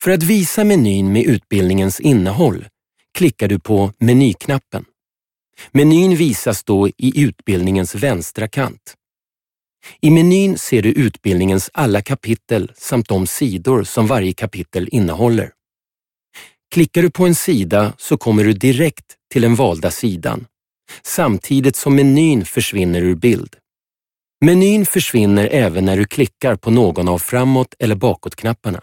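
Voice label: swedish male narration; voice demos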